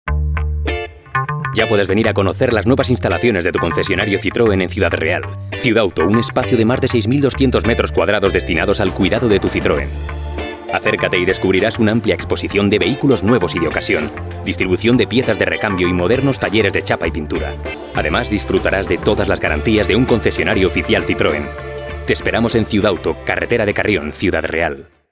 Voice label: spanish automotive

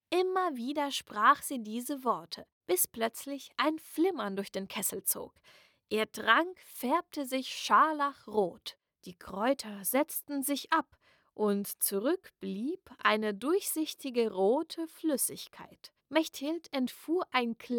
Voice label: german audiobooks

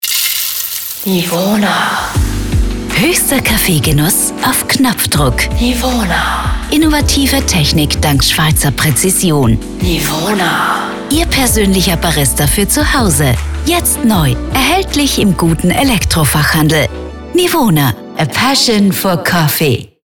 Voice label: german austrian commercial

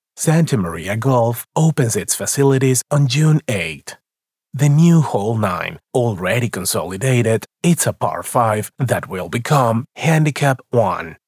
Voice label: english others

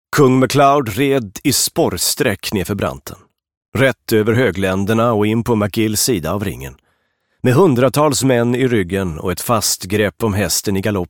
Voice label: swedish audiobooks

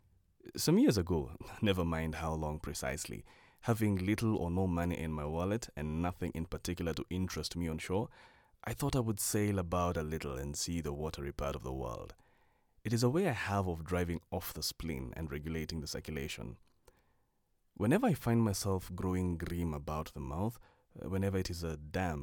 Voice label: english african narration

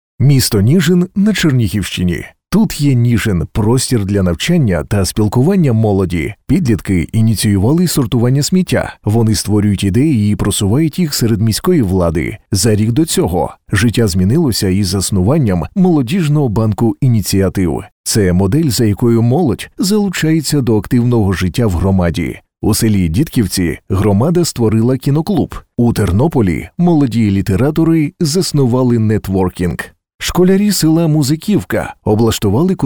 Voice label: ukrainian documentaries

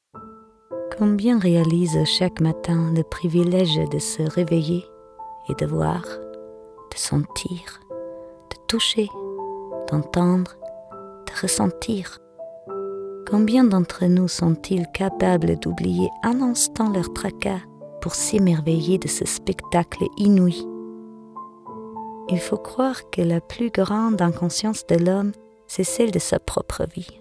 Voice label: french narration

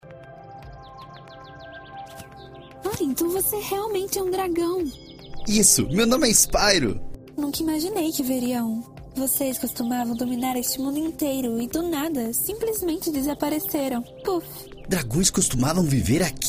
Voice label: portuguese brazilian video games

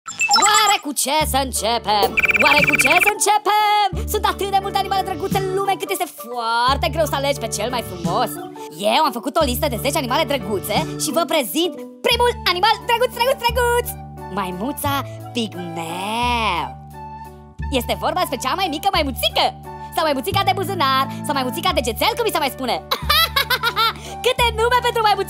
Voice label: romanian animation

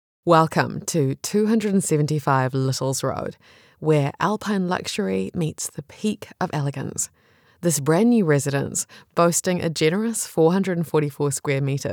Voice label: english new zealand real estate

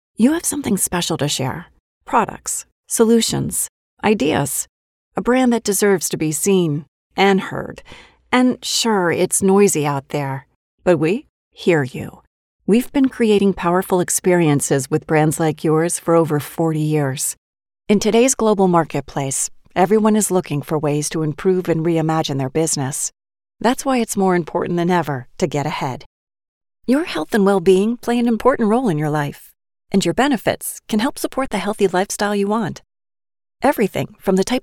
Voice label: english american explainer videos; Inglés (Estados Unidos)

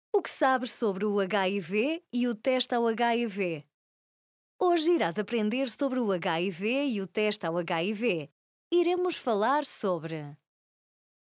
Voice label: portuguese narration

